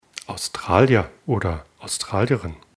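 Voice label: "australier", German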